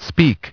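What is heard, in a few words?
"speak", English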